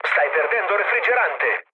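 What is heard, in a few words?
Italian damaged water mood low, speech; War Thunder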